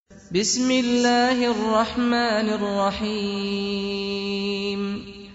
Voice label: Basmala sms